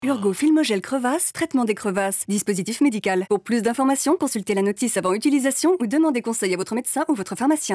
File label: radio; Urgo